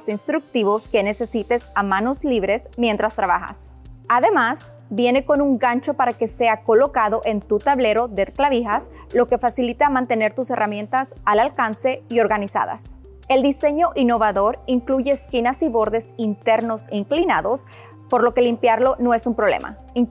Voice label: Tool Tray Spanish

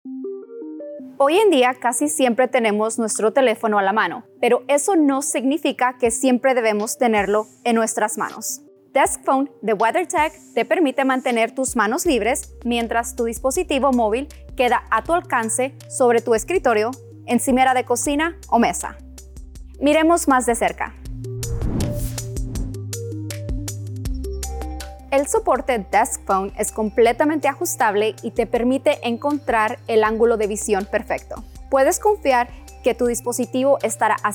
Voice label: Spanish; Desk Fone

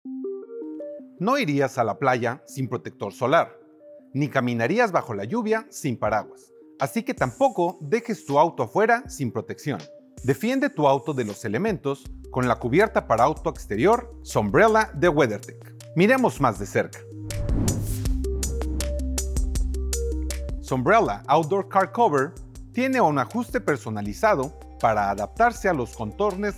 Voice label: Spanish; Sunbrella

